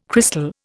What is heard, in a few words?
"crystal", Indian